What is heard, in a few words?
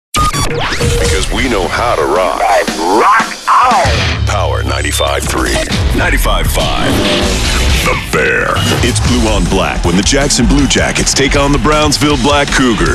Radio Imaging